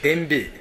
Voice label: "denbi", minamiyamagata